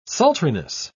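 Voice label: "sultriness", British English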